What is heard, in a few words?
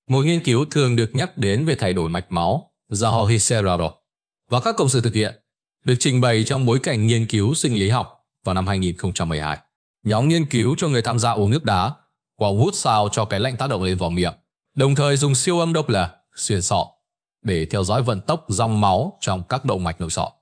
narration; Vi Sao An Kem Lanh Khien Dau Ban Dau Buot; StickerMan